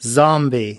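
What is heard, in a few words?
"zombie", US English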